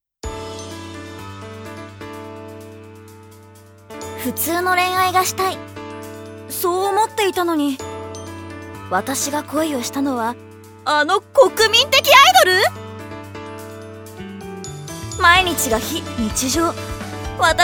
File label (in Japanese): ナレーション